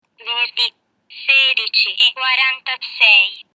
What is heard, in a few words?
Talks Italian